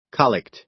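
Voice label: "collect", American English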